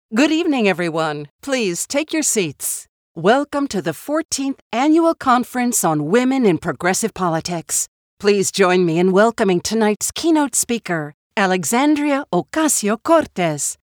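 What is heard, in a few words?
voice of god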